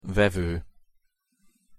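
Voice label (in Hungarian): "vevo", Hungarian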